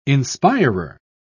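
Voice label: "inspirer", British English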